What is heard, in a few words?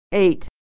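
"ate", American English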